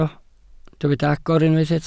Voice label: "agor", Welsh

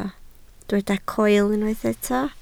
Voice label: "coel", Welsh

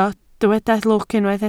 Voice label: "lwc", Welsh

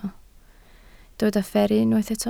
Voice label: "fferru", Welsh

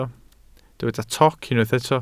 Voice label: "toc", Welsh

